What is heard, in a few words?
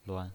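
"luan", pinyin, Mandarin Chinese, neutral tone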